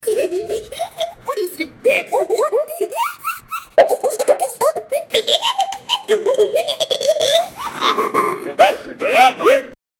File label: talking cartoon, voice acting